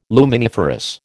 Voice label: "luminiferous", American